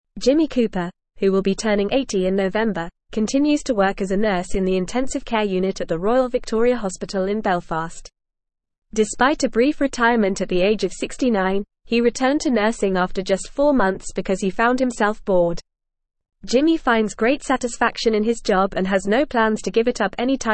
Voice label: English Advanced FAST Reading; English Newsroom; Dedicated Male Nurse Jimmy Cooper Defies Retirement Plans